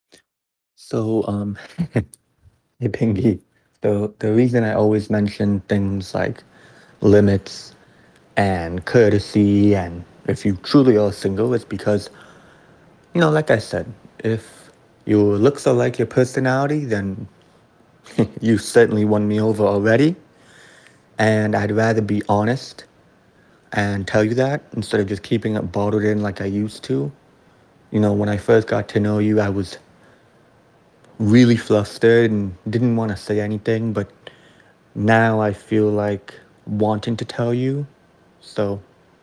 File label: voice message